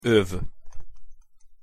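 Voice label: "ov", Hungarian pronunciation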